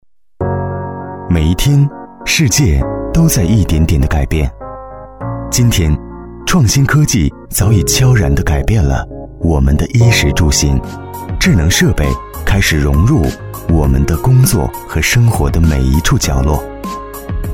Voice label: 男国 专题 政府 智能办税 科技

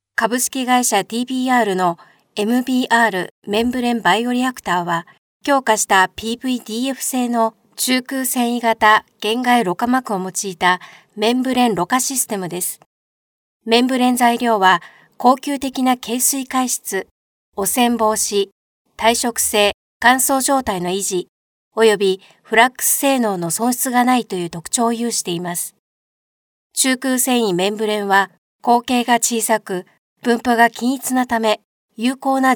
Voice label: 日语女 外语 介绍类200每分; 小语种

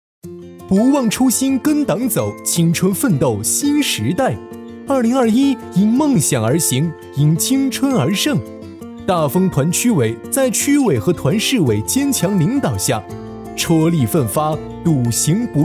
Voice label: 男 宣传片; 病毒; 不忘初心跟党走MG

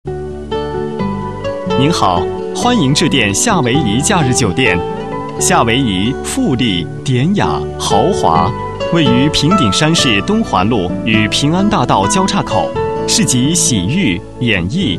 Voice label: 其他 彩铃 酒店会所彩铃, 男国

